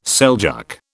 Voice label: "Seljuk", British